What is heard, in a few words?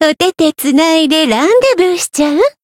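Voice variable chant, Japanese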